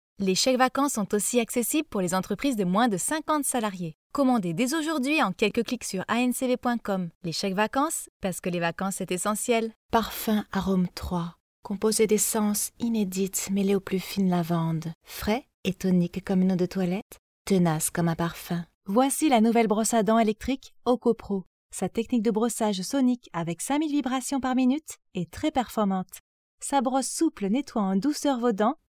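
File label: French, France, female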